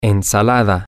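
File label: salad, Spanish, male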